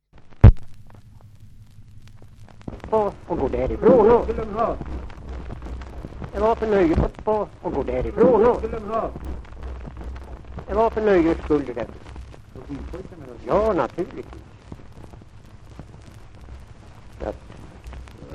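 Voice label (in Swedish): Hille Gästrikland, dialekter; Folkminnen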